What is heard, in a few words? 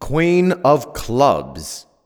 Queen Of Clubs Voiceover